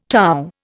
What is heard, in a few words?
"torr", Indian